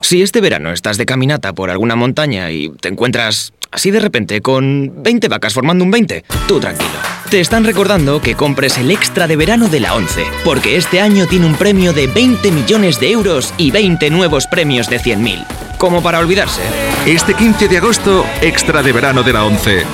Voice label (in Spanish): MALE Spanish Promocional Interpretado; ONCE